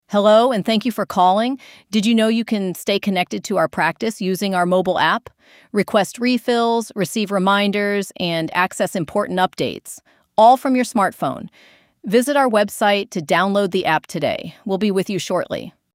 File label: app promotion ivr